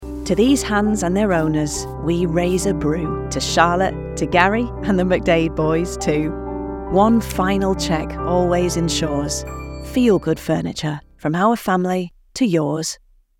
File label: Yorkshire accent commercial